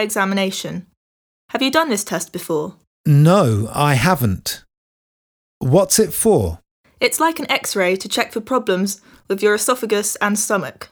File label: Conversation; Bedside Manner Beginner